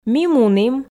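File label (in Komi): "ми мунім", Komi, female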